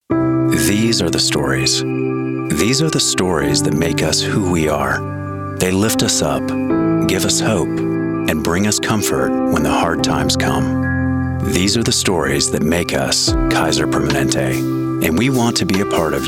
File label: Commercial